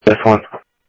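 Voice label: Sheriff East County, scanner audio trunk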